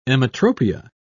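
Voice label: "emmetropia", British English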